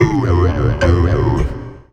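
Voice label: effects talk, Funky Vocals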